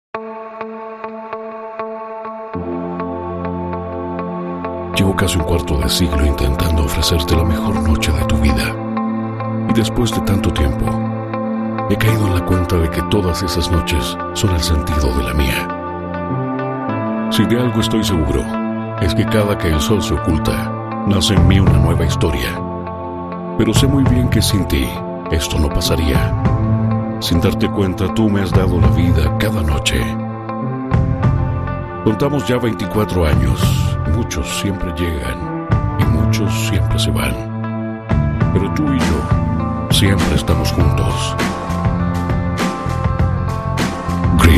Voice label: Spanish Latin American Neutral Slow Sexy Deep